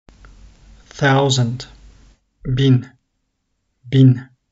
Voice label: thousand 1000, turkish; the talking turkish phrasebook